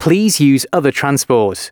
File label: announcements, male